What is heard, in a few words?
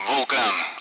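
Speech Sound Russian Common Allied Flight; AirDefence; Vulcan; DCS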